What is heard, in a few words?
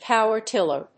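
"power tiller", English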